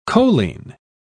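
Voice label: "choline", British English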